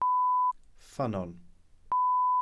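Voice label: "ffynnon", Welsh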